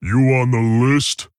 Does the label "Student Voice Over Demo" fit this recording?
No